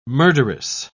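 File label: "murderess", British English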